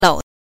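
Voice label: "lou", Mandarin Chinese pinyin, neutral tone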